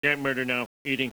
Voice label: zombie homer; zhomer